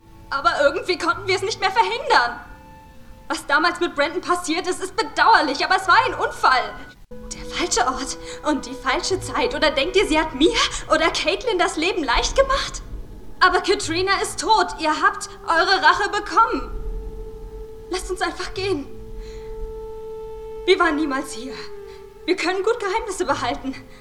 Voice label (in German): Synchron